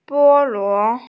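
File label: "bo1luo2", Chinese, F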